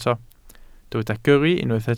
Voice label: "gyrru", Welsh

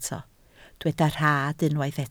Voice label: "rhad", Welsh

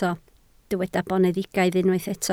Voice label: "boneddigaidd", Welsh

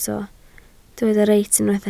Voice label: "reit", Welsh

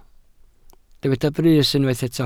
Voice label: "brys", Welsh

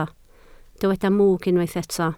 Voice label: "mwg", Welsh

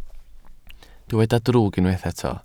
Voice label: "drwg", Welsh

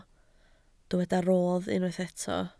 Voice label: "rodd", Welsh

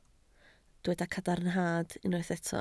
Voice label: "cadarnhad", Welsh

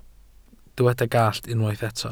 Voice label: "gallt", welsh

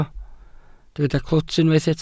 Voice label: "clwt", Welsh

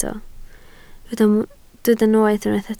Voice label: "noeth", Welsh

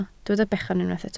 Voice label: "bechan", Welsh

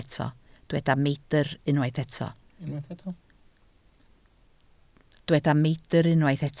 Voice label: "meidr", Welsh

